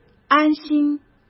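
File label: "安心", Mandarin Chinese; hsk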